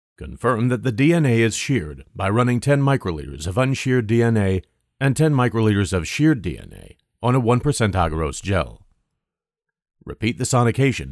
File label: Voiceover